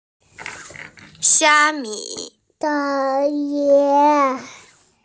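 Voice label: "xia1mi5", Mandarin Chinese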